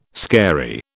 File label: "scary", British